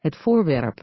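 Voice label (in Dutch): "het voorwerp", Dutch; Natuur samenvatting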